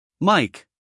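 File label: "Mike", US English, male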